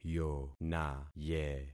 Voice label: "yonaye", concat syllables; Affixing experiment